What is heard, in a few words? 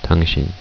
you formal, korean